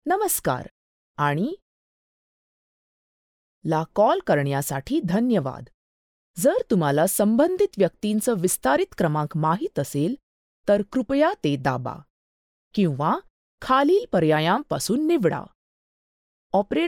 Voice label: ivr marathi

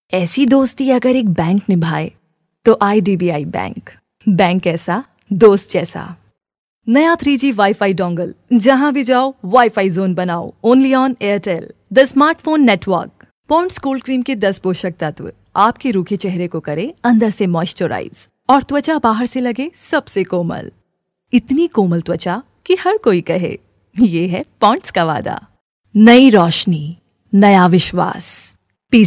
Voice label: Ads

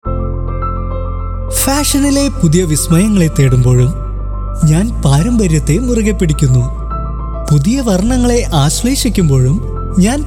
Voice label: MALAYALAM AD

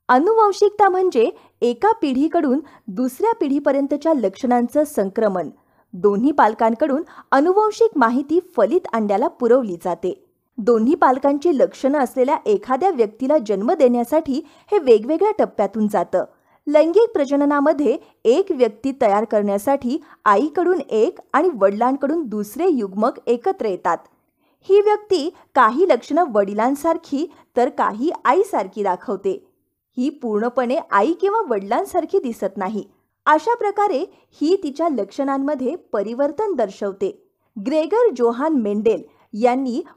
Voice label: elearn marathi

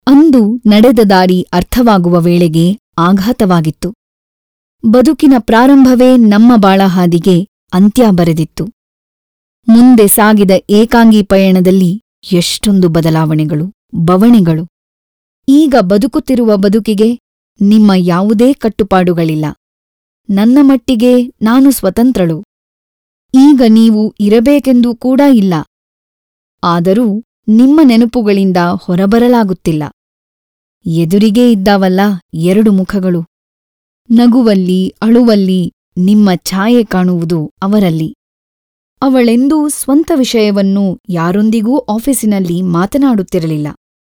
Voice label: Audiobook Kannada

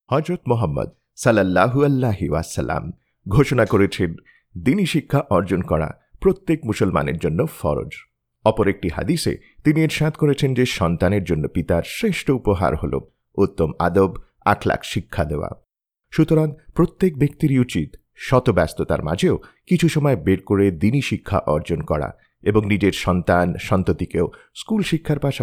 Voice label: explainer Bengali

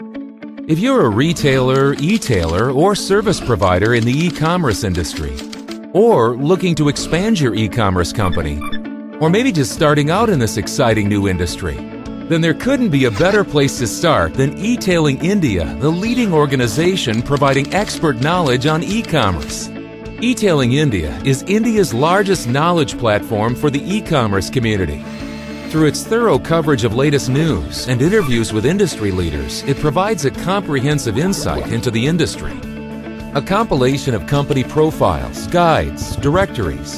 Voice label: explainer

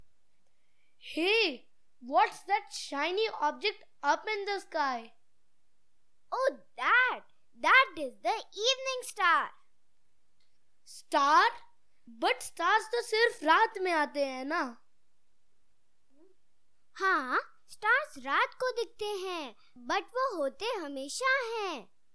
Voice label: eng

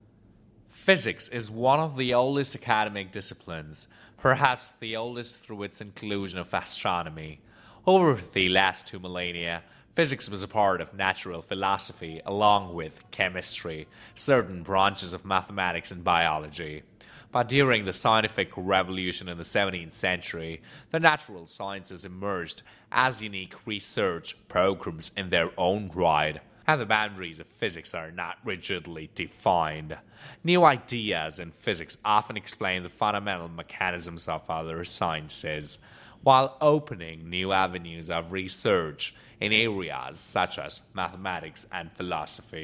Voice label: us english

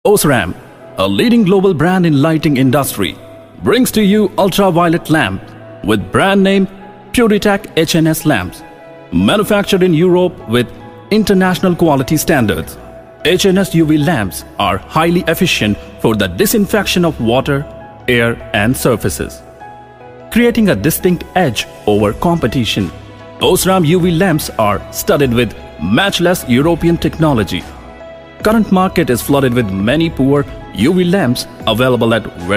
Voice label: English Product Narration

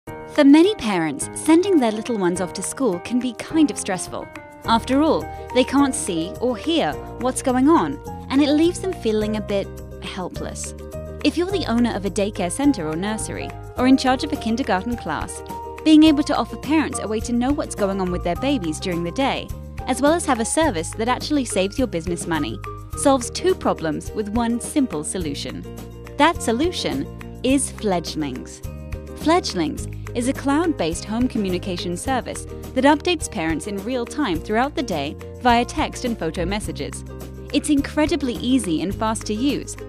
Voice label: explainer

